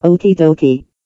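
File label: "okey-dokey", English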